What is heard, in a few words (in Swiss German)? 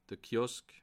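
"kiosk", Bas Rhin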